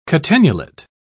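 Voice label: "catenulate", British English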